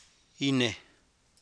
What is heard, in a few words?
"ine̱j", Copala Triqui